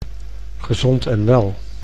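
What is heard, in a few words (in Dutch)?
"gezond en wel", Dutch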